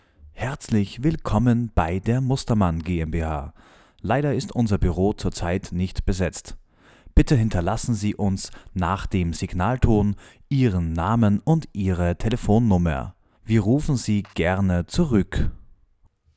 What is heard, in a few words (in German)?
Mobilbox Ansage